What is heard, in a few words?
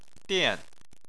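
"dian" fourth tone, Chinese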